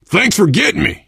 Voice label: to the rescue thanks, survivor voice biker; source engine; left 4 dead 2

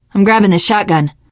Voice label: take auto shotgun, teen girl, survivor voice; source engine; left 4 dead 2

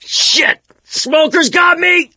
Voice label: choke, survivor voice mechanic; source engine; left 4 dead 2

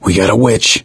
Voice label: warn witch, gambler, survivor voice; source engine; left 4 dead 2

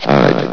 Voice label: "hide", vox; Source Engine; bshift

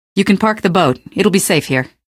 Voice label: gunboat park boat, male; Source Engine; video game; canals

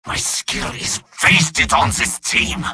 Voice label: jeers; medic; video games; source engine; tf; mvm

